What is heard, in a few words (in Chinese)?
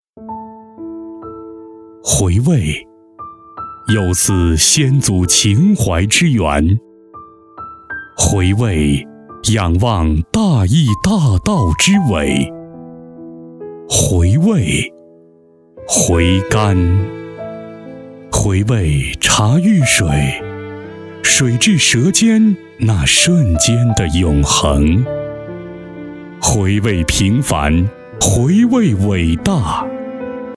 男 专题 厚重大气 茶叶专题